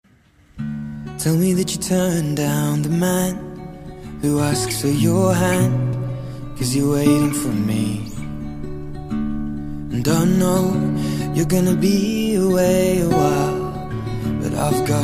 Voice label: AMIZADE FEM, telemensagem

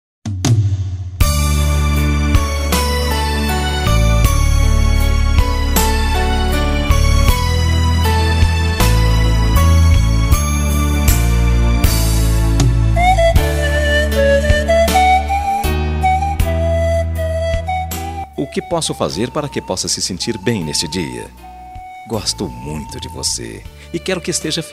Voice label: ANIV SOBRINHA MASC, telemensagem; aniversario sobrinha sobrinho

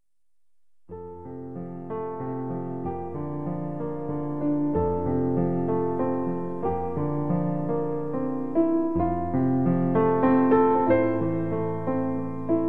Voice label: DIA DAS MAES PARA AVO MASC INSTRUMENTAL; telemensagem dia das maes avo

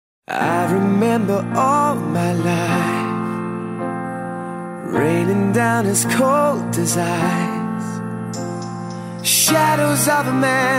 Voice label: aniversario SOBRINHA FEM, telemensagem aniversario sobrinha sobrinho

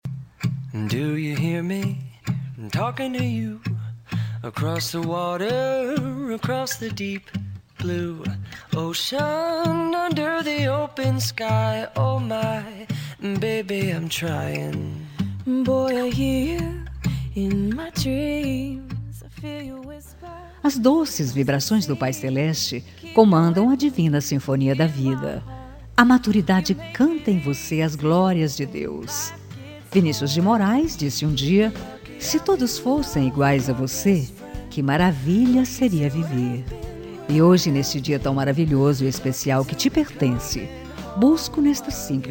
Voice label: aniv. colega trabalho f, Voz Feminina; Aniversário Colega de Trabalho

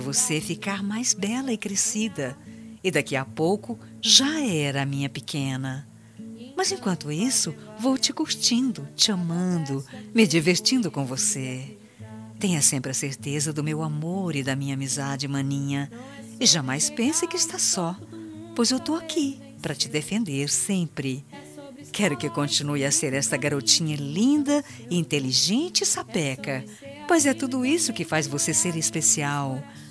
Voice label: ANIV. IRMA, telemensagem aniversario irma

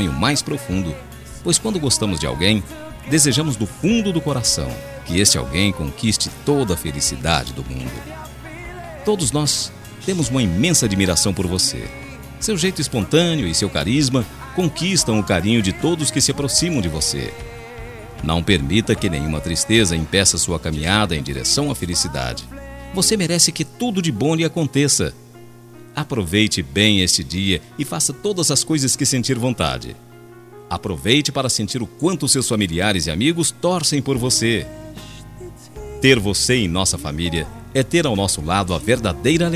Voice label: ANIV CUNHADA MASC, telemensagem

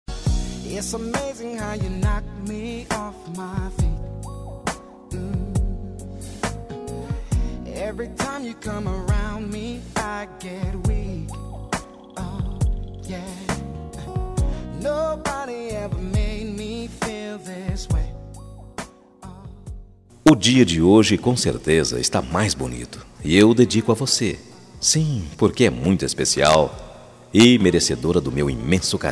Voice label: ANIV FICANTE MASC, telemensagem

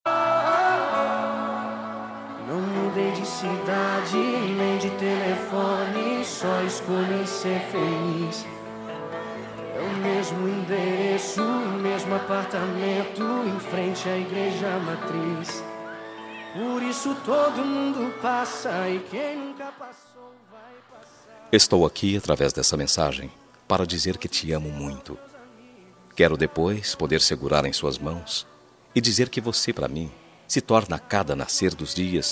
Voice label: ROMANTICA MASC, telemensagem romantica masculina

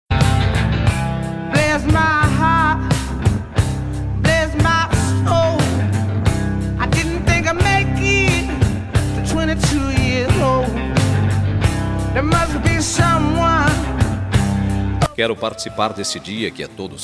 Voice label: ANIV NEUTRO SING MASC, Telemensagem Aniversário Neutro

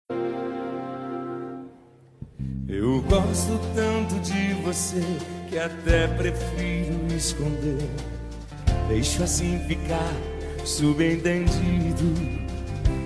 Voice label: ROMANTICA PARA ESPOSA, telemensagem romantica para esposa

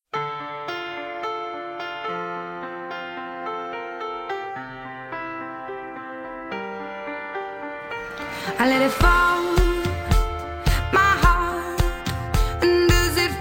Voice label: aniversario CUNHADA MASC, telemensagem aniversario cunhada cunhado

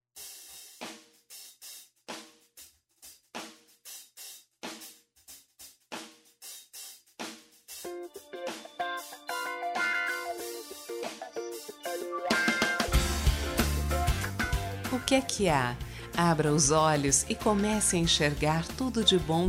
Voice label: telemensagem

